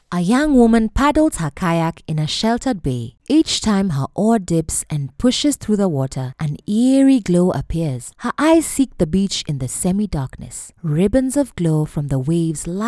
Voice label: Full Session, audiobook